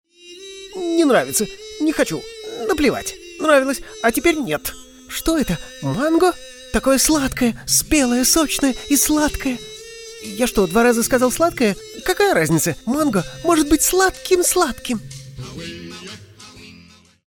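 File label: parody; Korol Jylian Madagaskar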